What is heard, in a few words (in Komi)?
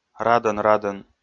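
"радӧн-радӧн", Komi, male; audio dict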